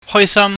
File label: "hoisam", Cantonese